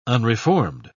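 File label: "unreformed", British English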